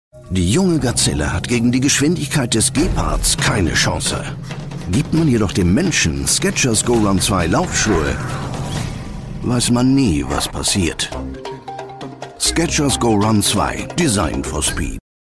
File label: male, German, commercial; skechers